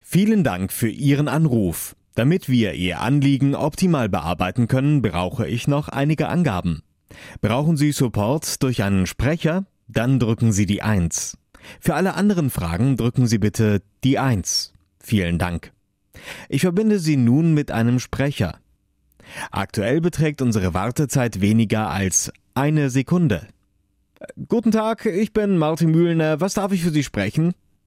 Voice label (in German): telefon voice